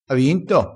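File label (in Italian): "se", vocabolario dialettale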